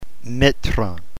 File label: "metron", Greek